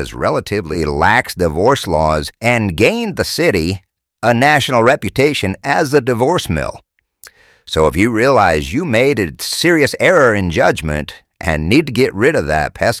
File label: GXO TOUR RENO MALE; fake sponsors